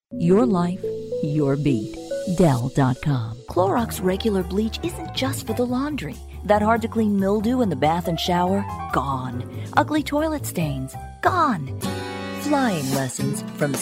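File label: English USA female, english american voice over talent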